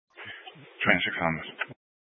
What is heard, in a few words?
Mesa County Sheriff Dispatch